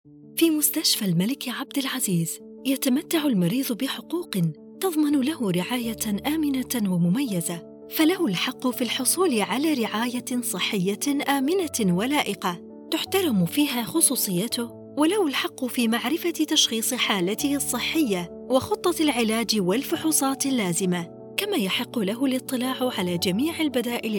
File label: voiceover